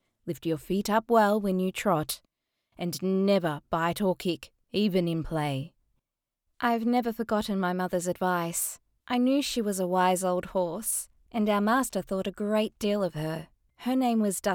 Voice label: Audiobook, English (Australian)